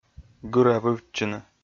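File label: "гыравывтчыны", Komi, male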